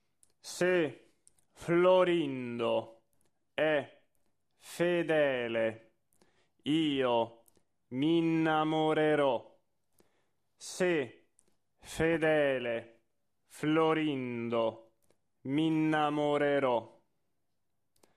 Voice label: monotono

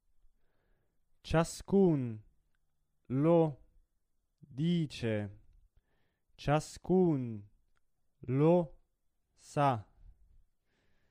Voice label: monotono; Donizetti; La figlia del reggimento; Ciascun lo dice

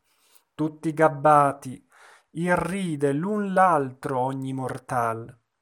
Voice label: espressivo; Falstaff

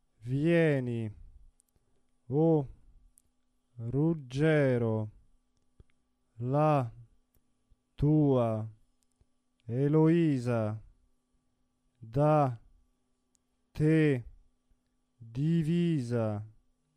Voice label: monotono

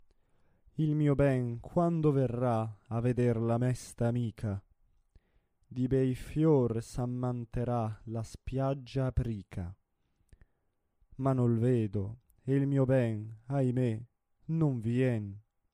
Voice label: espressivo

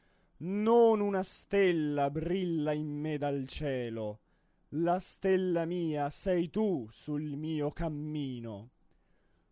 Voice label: espressivo

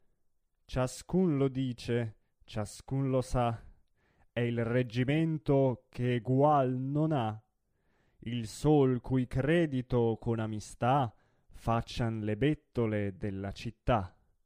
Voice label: Ciascun lo dice espressivo; Donizetti; La figlia del reggimento

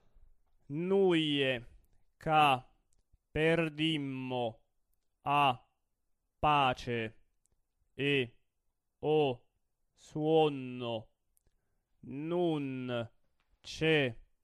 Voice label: monotono; Anima e core

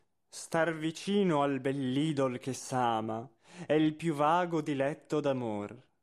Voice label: espressivo

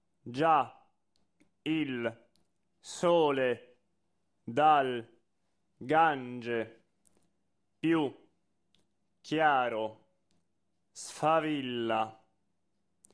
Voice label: monotono

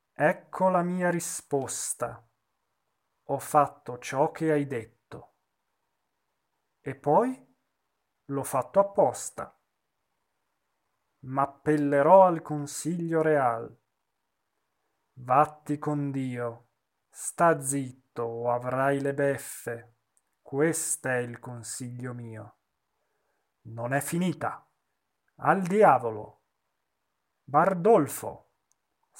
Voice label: espressivo; Falstaff

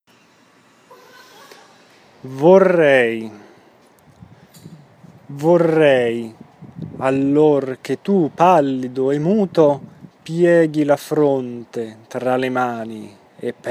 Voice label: espressivo

